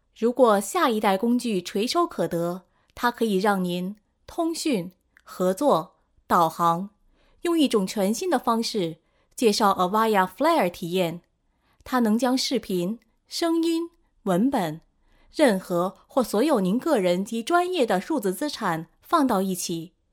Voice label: locutora china chinese voiceover; locutora de China

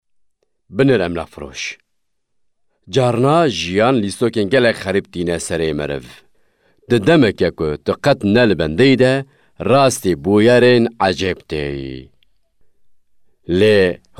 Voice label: kurdish voiceover, Kurdish male voice over

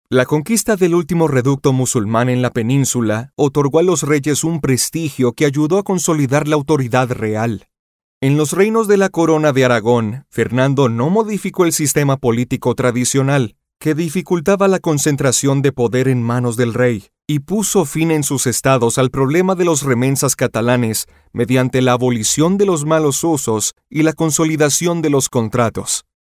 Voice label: locutor espanol neutro latin american voiceover

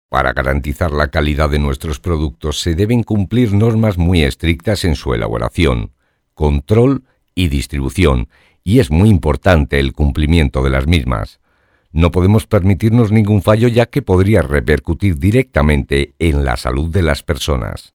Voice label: spanish voiceover

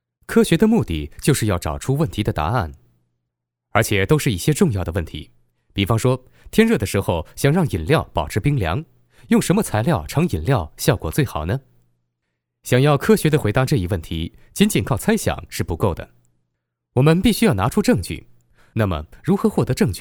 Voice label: chinese male voiceover locutor chino; locutor chino mandarín

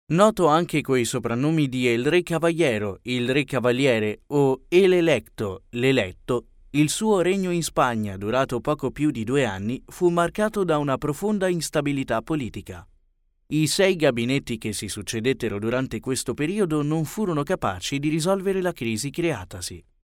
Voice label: locutor italiano, Italian voiceover; locutor de Italia

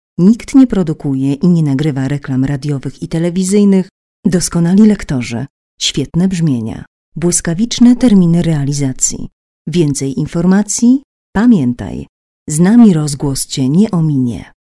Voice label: polish voiceover locutora polaca; Locutores de Polonia

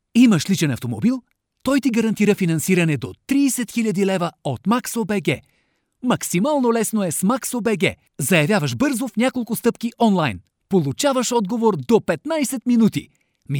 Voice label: bulgarian voiceover locutor bulgaria; locutor búlgaro

